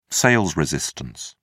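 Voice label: "sales resistance", British English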